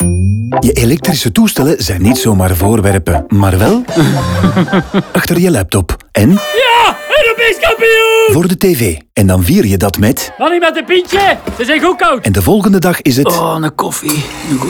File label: radio nl music intro; recupel